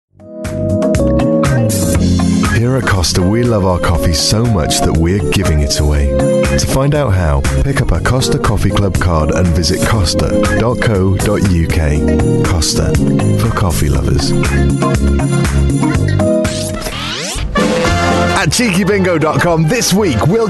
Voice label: commericals

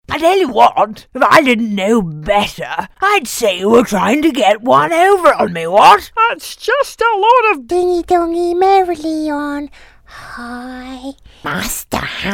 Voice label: character

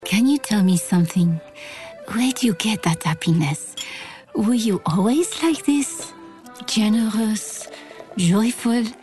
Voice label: french accent

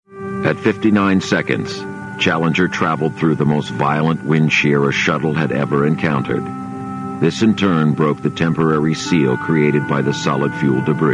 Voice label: documentary

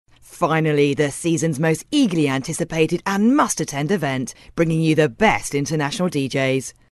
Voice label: continuity

